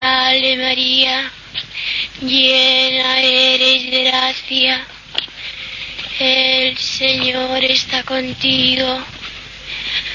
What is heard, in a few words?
Hail Mary in Spanish; Garabandal